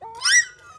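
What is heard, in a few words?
voice delay; Poteko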